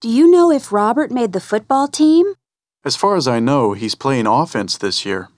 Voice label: dialog

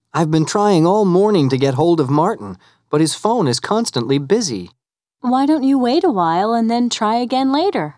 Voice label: dialog

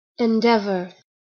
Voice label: "endeavor", English

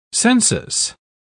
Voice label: "census", English

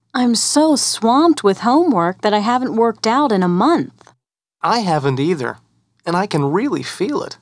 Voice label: dialog

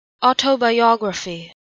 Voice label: "autobiography", English

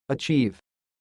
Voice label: "achieve", English

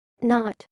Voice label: "KNOT", English

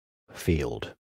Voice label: "field", English

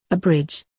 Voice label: "abridge", English

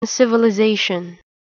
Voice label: "civilization", English

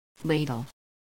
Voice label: "ladle", English

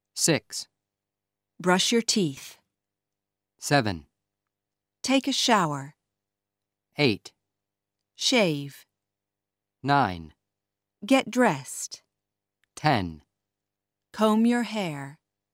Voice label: "raw"

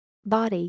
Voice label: "body", English

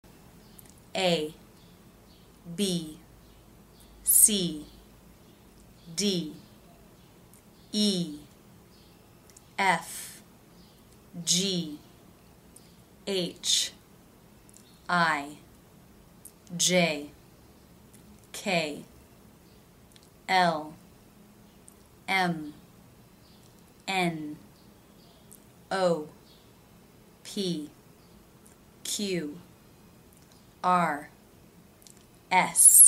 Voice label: all alphabet, English

